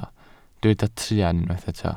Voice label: "truan", Welsh